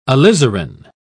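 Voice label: "alizarin", British English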